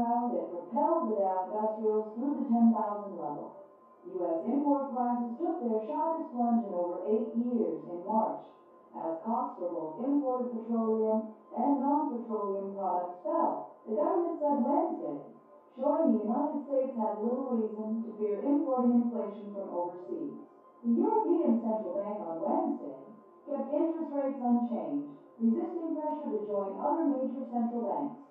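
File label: TV Female News Reporter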